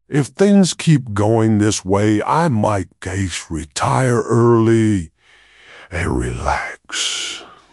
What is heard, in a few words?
happy; fertig classic games